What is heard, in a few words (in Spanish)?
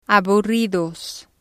"aburridos", spanish